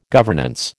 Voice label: "governance", American